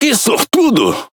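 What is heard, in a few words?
"Caramba", Brazilian Portuguese; speech; quick commands; World Of Warships; PT BR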